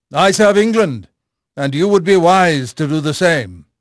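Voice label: English male; Pirates Of The Caribbean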